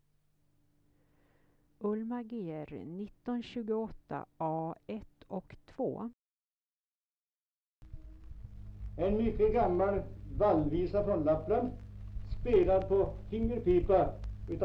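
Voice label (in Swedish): Ovanåker Hälsingland, dialekter; Folkminnen; Svenska sagor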